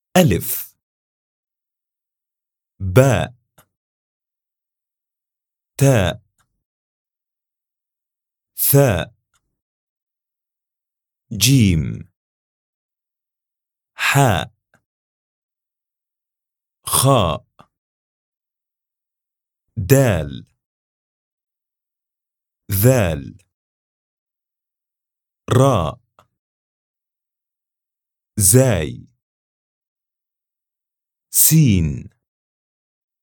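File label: alphabets alifbaa male, Arabic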